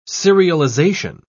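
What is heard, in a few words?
"serialisation", British English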